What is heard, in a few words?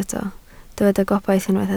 "gobaith", Welsh